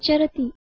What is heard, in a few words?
"charati", Sanskrit